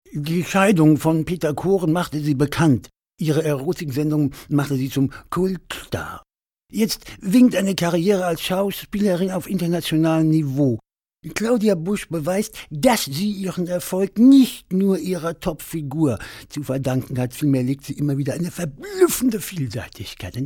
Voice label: comedy